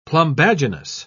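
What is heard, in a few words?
"plumbaginous", British English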